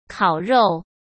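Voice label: "烤肉", Mandarin Chinese; chinese flashcards; hsk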